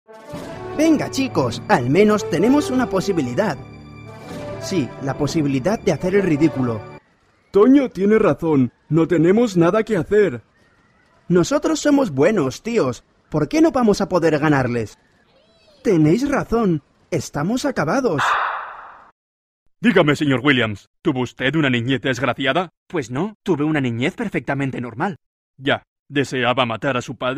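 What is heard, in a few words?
European Spanish Characters; GoLocalise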